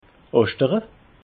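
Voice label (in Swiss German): "oschtere", Alsatian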